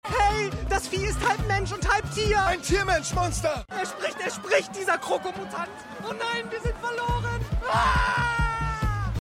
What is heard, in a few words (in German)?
Animation hysterisch; SUPA TEAM 4